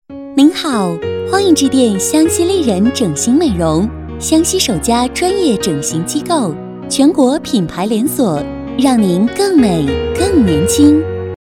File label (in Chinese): 女 彩铃; 湘西丽人